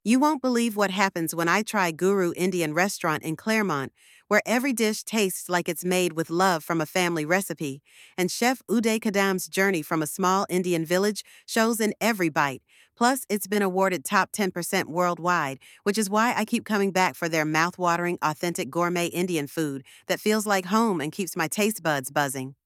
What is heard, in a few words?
voiceover; Guru's Signature Dishes; Guru Indian Restaurant; Explore the mouthwatering and authentic gourmet Indian dishes that make Guru Indian Restau; Kaylin AI